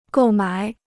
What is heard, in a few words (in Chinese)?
"购买", Chinese